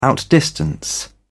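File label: "outdistance", British English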